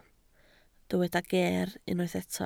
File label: "geer", Welsh